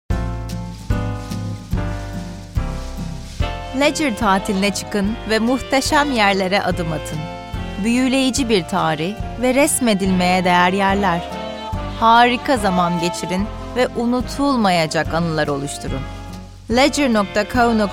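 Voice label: Turkish, Commercial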